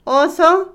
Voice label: Otomí, mujer; cero; Cantidad; INALI

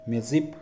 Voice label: "enemigo", Mixe, hombre; Sociales

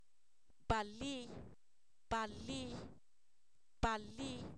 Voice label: enderezado, Zapoteco, san miguel albarradas; adjetivos

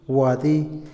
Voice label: final, Otomí, hombre; INALI

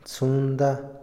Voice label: "altar", Otomí, hombre; Religión; INALI

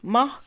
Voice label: diez, Mixe, Mujer; Números; INALI

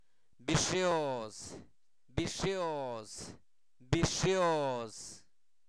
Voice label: Zapoteco Albarradas, santo domingo albarradas; INALI; humano; sacerdote

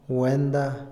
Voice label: propiedad, Otomí, hombre; Posesión; INALI

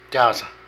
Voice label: Cigarro, Zapoteco de Petapa; Moderno; Vocabulary

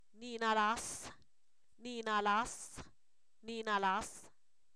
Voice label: algo delagado, Zapoteco, san miguel albarradas; adjetivos

